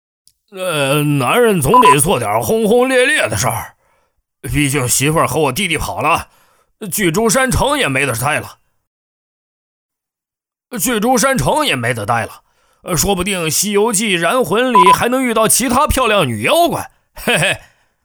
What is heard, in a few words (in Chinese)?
男C9-角色模仿, 年轻质感 幽默搞怪; 猪八戒